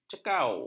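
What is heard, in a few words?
"cekau", Malay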